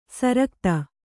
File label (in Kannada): "ಸುರಗತಿ", Kannada